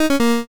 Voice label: pico angry